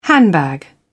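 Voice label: "handbag", British English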